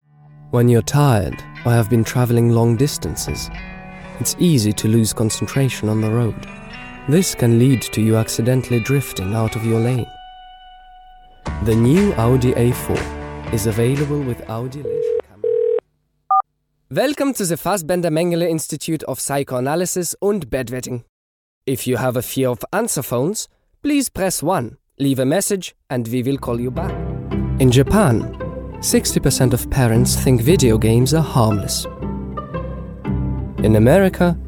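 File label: German, accented English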